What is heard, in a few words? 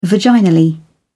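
"vaginal", British English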